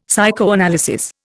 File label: "psychoanalysis", Indian; Dictionary